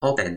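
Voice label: "open", toki pona; linku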